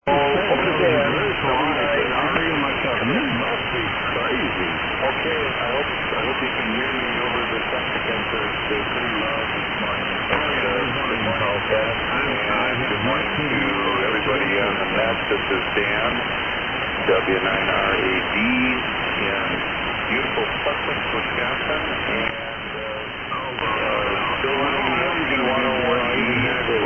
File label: Yaesu FT-101E Shure 444 Mic; Sussex, Wi.